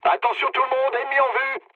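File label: French enemy detected mood low; War Thunder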